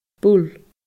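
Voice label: "buil", Scottish Gaelic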